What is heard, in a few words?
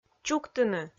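"чуктыны" Komi, female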